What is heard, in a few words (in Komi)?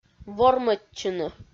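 "вормӧдчыны", Komi, female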